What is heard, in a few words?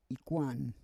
"ikwan", Roon